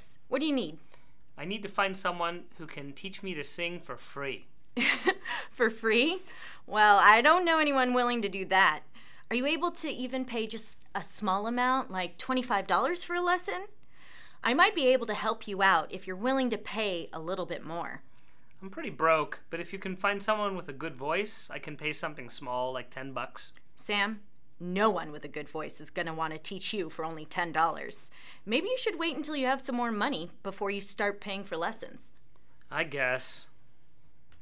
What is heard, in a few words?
Conversation